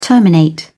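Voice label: "terminate", British English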